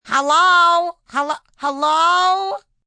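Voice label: "Hello Hello" female; Greetings; VoiceBox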